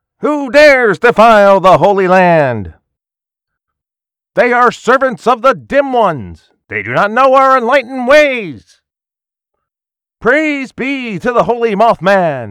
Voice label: Cultist South Central US